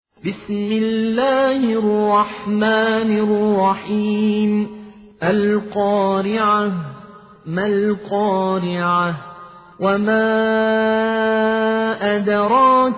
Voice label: سوره قارعه حفص عن عاصم

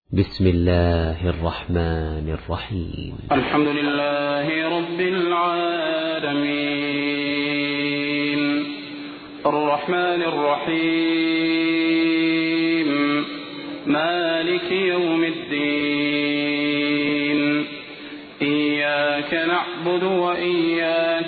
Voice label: ترتیل سوره حمد; صلاح البدیر

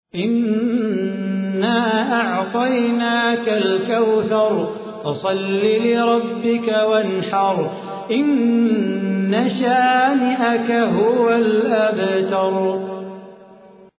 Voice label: ترتیل سوره کوثر; صلاح بوخاطر

